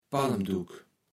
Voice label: "Palmduck", Platt

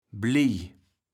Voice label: "blij", kaevels platt

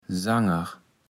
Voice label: "Sanger", Platt

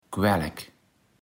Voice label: "quällek", Platt

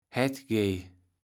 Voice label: "hättgej", kaevels platt

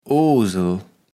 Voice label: "Oosel", Platt

